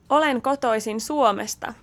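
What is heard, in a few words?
Finnish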